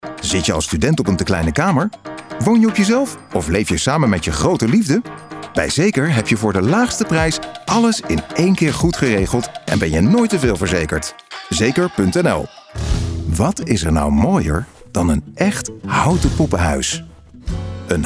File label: commercials